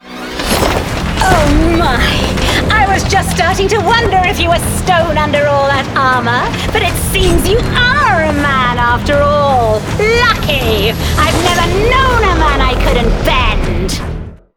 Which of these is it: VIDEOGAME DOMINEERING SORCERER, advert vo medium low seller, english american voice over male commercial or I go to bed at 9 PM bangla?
VIDEOGAME DOMINEERING SORCERER